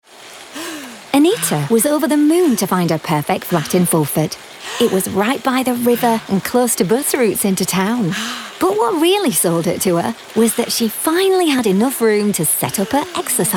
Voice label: Comedy